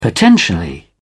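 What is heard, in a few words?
"potentially", British English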